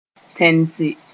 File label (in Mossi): "tẽnese", moore; make smooth